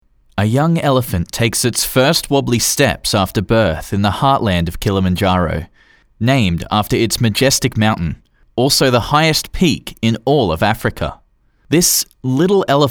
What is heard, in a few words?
Nature Documentry